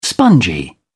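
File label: "spongy", British English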